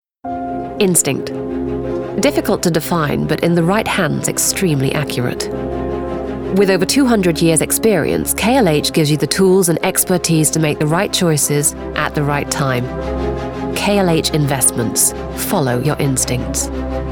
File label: VO Showreel Financial; KLH